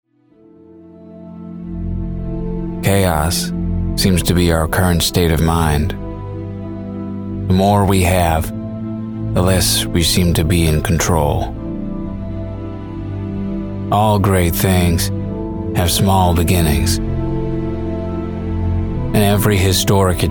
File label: with music